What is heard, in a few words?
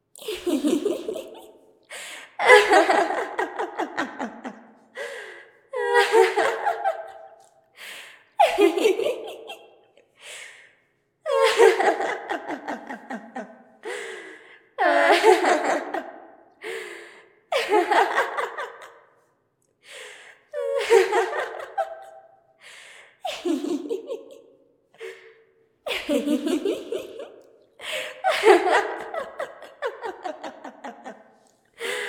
Female Ghost Hunting; Phasmophobia